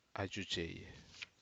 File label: Aneityum go up go east